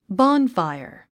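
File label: "bonfire", English word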